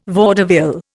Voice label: "vaudeville", Indian